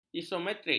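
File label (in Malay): "isometrik", Malay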